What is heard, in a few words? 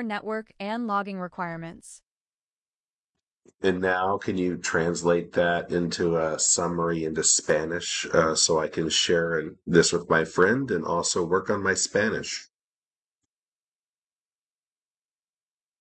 voice live hosted agent sample